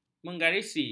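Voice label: "menggarisi", Malay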